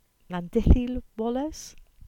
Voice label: Cornish; Lower Landithy